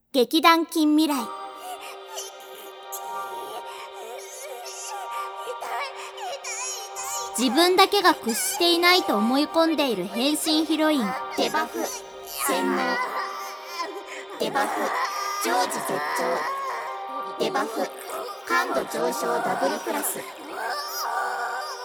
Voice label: タイトルコール

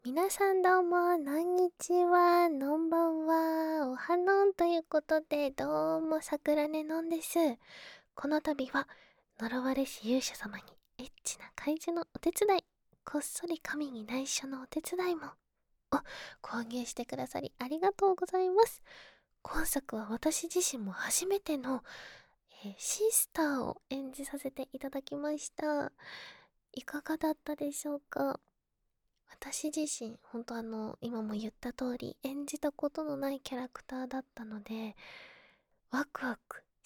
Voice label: まったりフリートーク

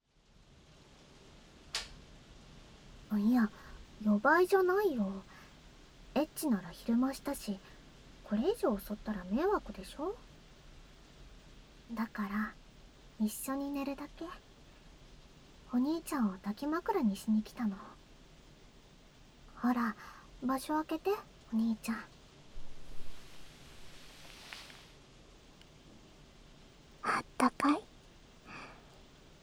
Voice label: 添い寝 左右反転, ASMR

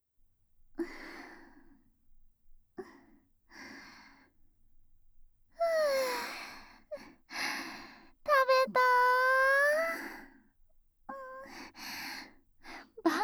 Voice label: 夜の旅館で、疲れた身体を癒す炭酸マッサージ, 効果音なし, ASMR; 世話好きJK舞花ちゃん; お泊り海旅館

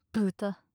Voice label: "豚", 強く, 近, 左; マゾオスのあなたに敗北射精の快楽を叩き込む音声

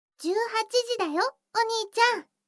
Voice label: 時報18時; 全肯定ロリママのあまあまでえっちな添い寝; いもおかASMR

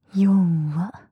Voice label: よわ・強く・極近・左; マゾオスのあなたに敗北射精の快楽を叩き込む音声

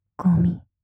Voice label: "ゴミ" 極近・左; マゾオスのあなたに敗北射精の快楽を叩き込む音声

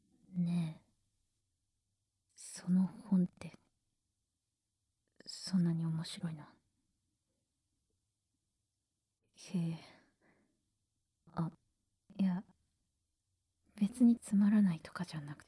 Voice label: 効果音なし, ASMR; あすきぃきゅーぶ x VOICE LOVER; 幼馴染みのカラダがエロいド陰キャお姉ちゃん

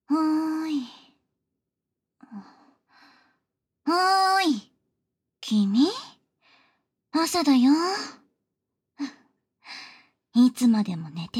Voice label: ラブラブ目覚まし音声♪; ヴァンプ

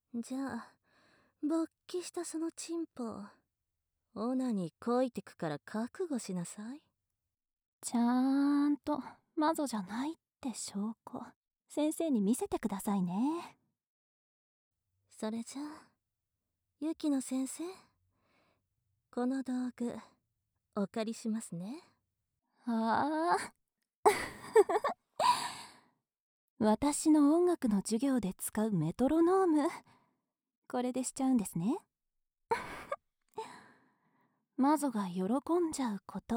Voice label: 基本のメトロノームリズムオナサポ, ASMR

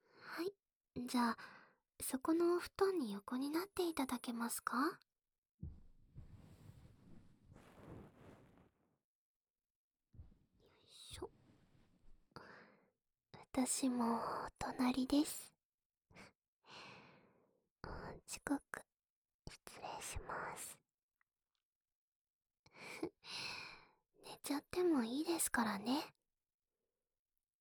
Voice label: ささやき読み聞かせ朗読, ASMR; 「赤ずきんちゃん」

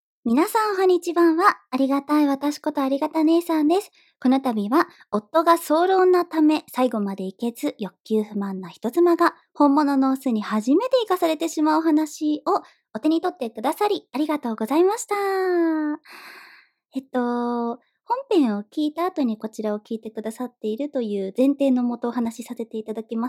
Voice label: フリートーク, ASMR; 【ずう〜っと100円】夫が早漏なため最後までイけず欲求不満な人妻が本物のオスに初めてイかされてしまうお話

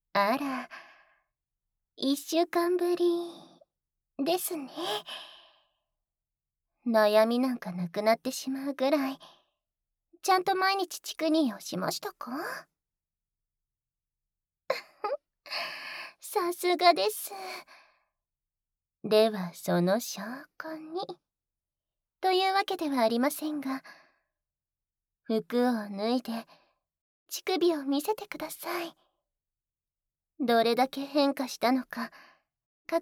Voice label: 脳が蕩けるあまあま両耳舐めチクニー, ASMR

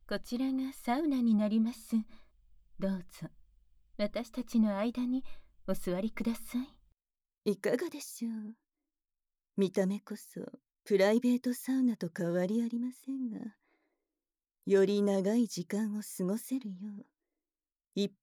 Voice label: 全身リップ 乳首責め エフェクト無し; 乳首責めされながらオナニー鑑賞チンイラ誘惑; 種付けサウナ

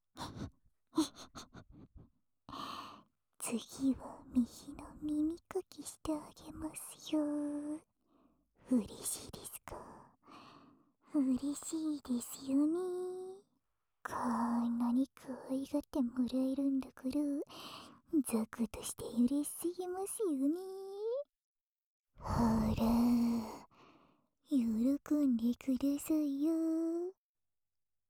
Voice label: 右耳を耳かきしてあげます, ASMR; 左右反転ver; きったなぁい右耳を耳かきしてあげます

